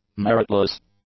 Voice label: "meritless", english; adjectives

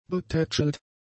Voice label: "betätschelt", German; adjectives

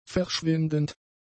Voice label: "verschwindend", German; adjectives

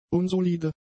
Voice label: "unsolide", German; adjectives

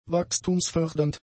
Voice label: "wachstumsfördernd", German; adjectives

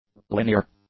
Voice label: "linear", english; adjectives

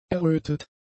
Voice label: "errötet", German; adjectives

